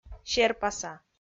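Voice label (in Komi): "серпаса", Komi, female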